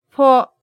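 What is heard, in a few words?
"fo", Japanese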